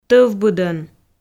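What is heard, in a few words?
"тӧвбыдӧн", Komi, female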